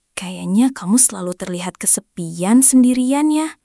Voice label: indonesian phrases